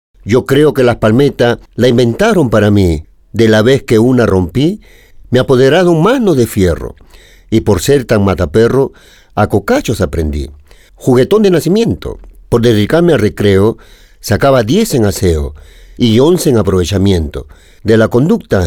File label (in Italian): spagnolo allegra